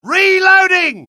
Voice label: reload, dialogue UK; mohaa

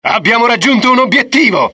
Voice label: axis italian success; dialogue; mohaa

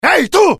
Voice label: anger, dialogue IT; mohaa; attack

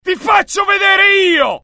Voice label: anger, dialogue IT; mohaa; attack

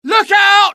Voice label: alert, dialogue UK; mohaa

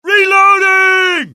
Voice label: reload, US; dialogue; mohaa

